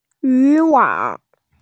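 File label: "yu wang", Mandarin Chinese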